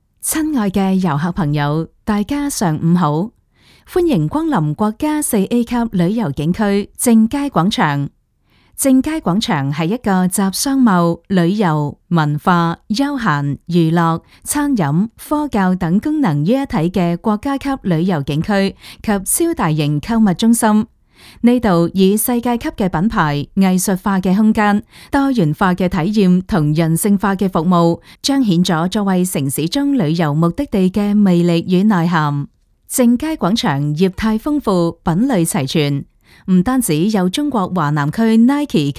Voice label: 女【提示】商场提示

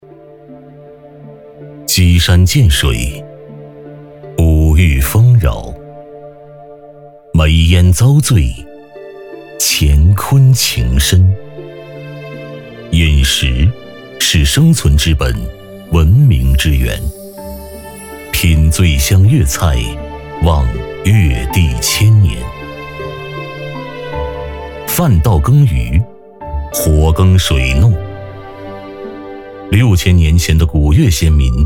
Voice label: 男 纪录片; 至味绍兴

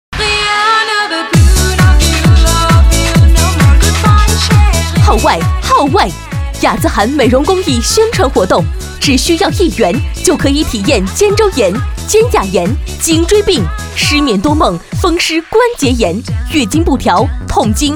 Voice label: 女 促销

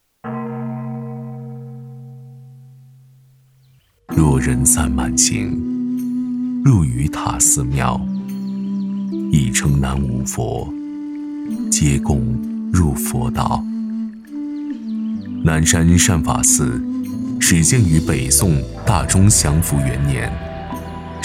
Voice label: 男 专题片; 南山善法寺

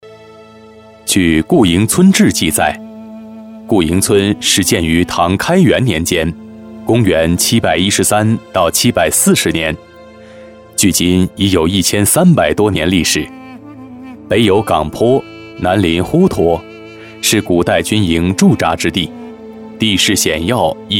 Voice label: 男 专题; 固营村

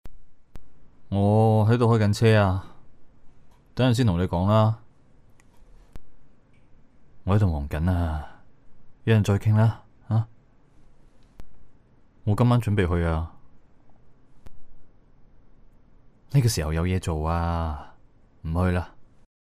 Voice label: 粤男 素人 开车

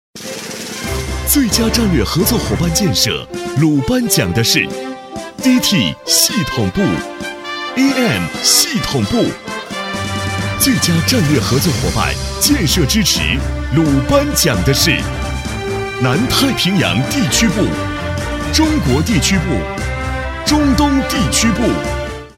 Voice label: 男 颁奖

